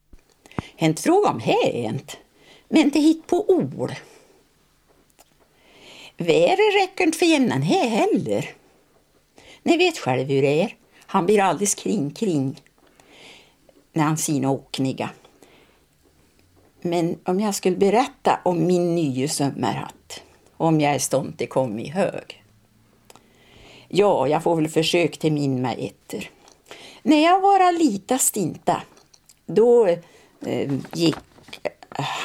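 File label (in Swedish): Hille Gästrikland, Svenska sagor, dialekter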